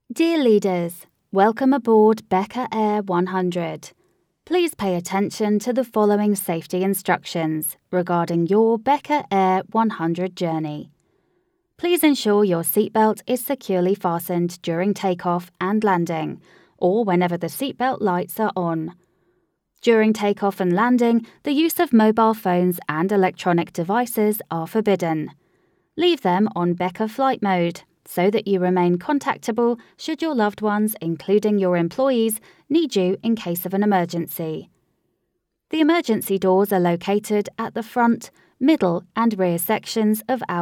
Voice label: Female UK Voice, Sexy, Seductive, Sophisticated, Friendly, Warm, Up beat, Energetic, Smooth